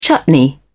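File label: "chutney", British English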